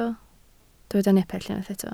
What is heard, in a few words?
"nepell", Welsh